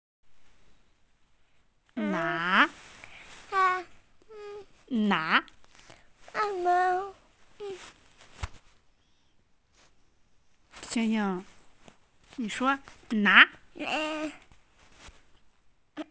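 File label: "na2", Mandarin Chinese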